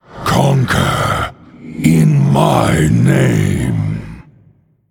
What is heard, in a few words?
Male Undead; TheLichKing